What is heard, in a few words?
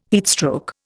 "heatstroke", Indian